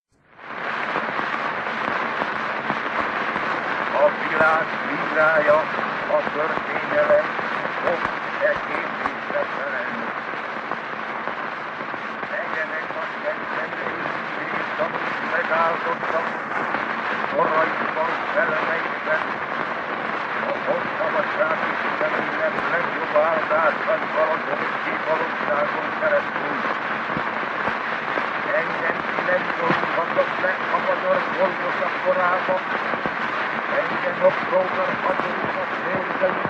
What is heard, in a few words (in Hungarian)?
Kossuth Lajos Hangja Fonograf Felvetelen